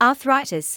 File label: "arthritis", medical english